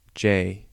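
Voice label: English alphabet j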